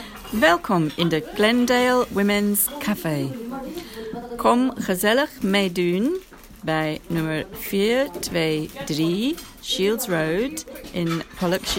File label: Dutch Welcome